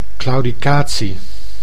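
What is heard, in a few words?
"claudicatie", Dutch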